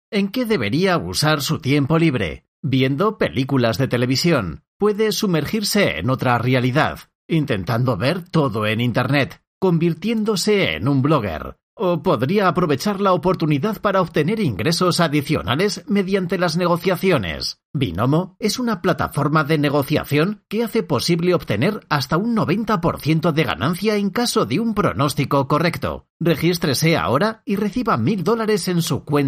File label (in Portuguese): locutor